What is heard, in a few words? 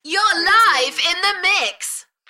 UK female, Enthusiastic; beanstalk audio